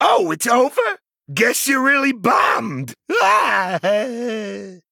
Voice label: Male Goblin Loss